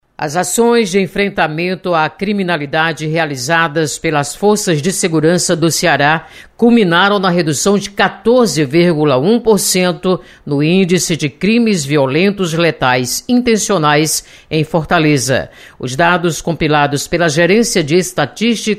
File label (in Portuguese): notícias, radio fm; Segurança / Capital cearense apresenta redução nas mortes por crimes violentos; (2'08")